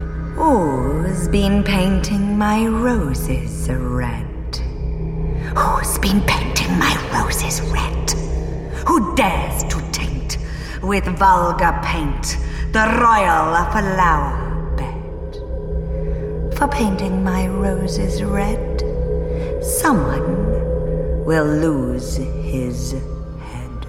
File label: Evil Queen